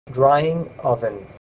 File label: "drying oven", voice